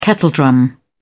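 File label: "kettledrum", British English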